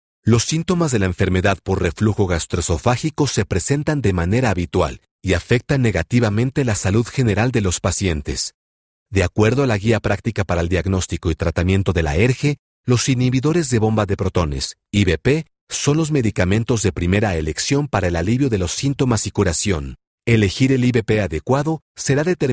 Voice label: spanish latin american medical narration

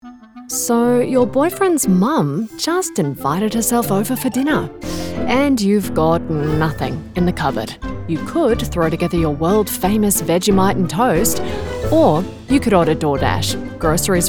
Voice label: english australian commercial

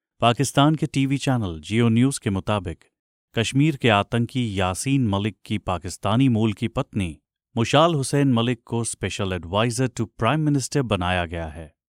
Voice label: hindi podcasts

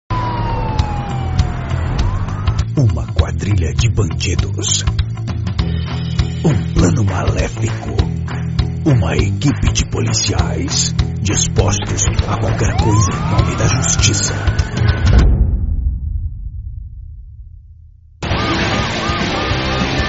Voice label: portuguese brazilian movie trailers; voice actors

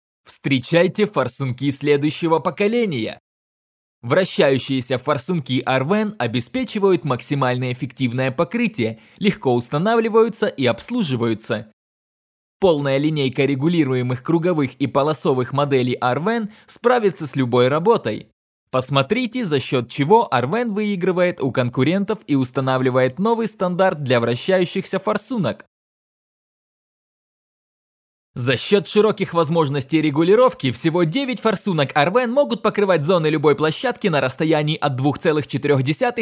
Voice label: russian promos

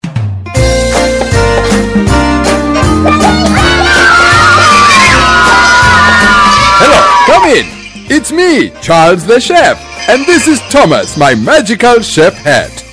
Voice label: English American tv shows

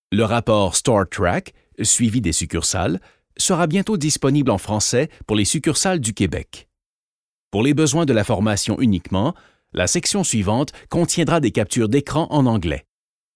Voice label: french canadian e-learning

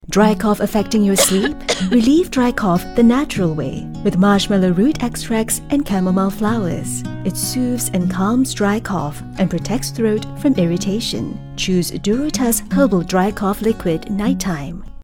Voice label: english international promos, Ofertas; Una actriz de voz cálida, amigable y versátil con atractivo global.; singaporean english

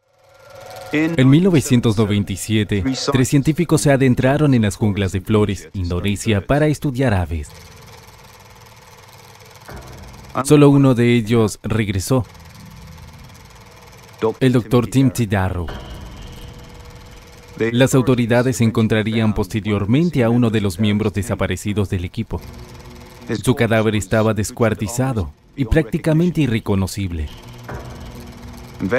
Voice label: spanish latin american documentaries

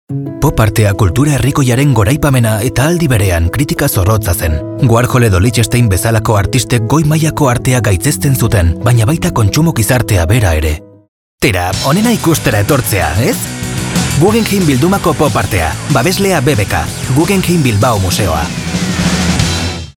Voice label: basque radio imaging

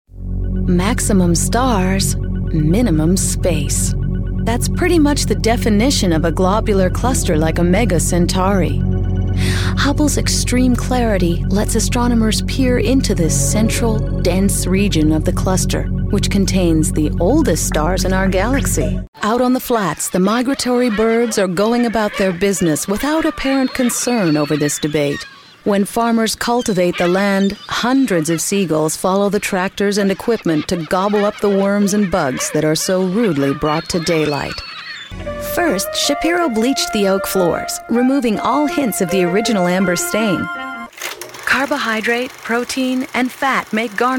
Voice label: english american narration

